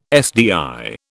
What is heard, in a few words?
"SDI", British